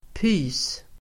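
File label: "pys", Swedish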